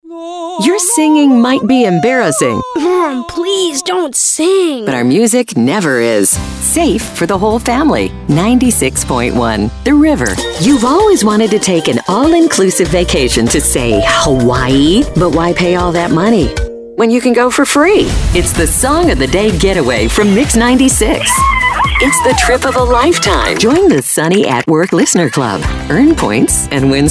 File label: radio women ac; radio imaging